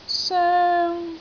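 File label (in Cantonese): "soeng1", Cantonese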